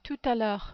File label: "tout a l heure", French